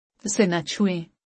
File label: Don't touch this, Urdu; Basic Greetings and Courtesy